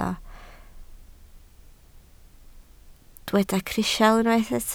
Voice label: "crisial", Welsh